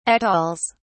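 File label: "atolls", English, uk British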